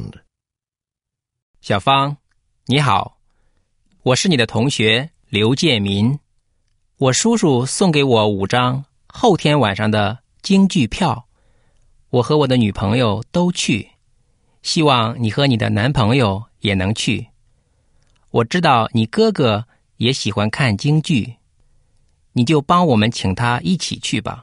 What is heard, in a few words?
chinese language relay telephone message